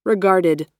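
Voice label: "regarded", US English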